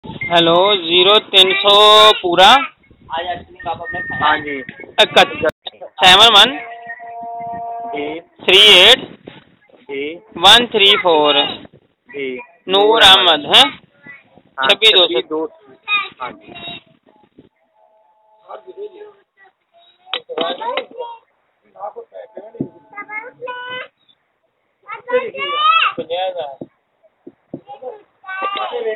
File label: Call recording